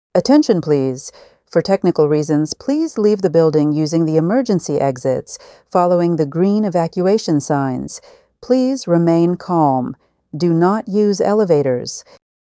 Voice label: emergency announcement evacuation technical reasons; emergency voice announcements